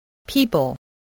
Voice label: "people", English, voice